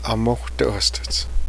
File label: truck, cheyenne language